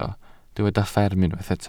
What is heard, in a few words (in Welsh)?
"fferm" Welsh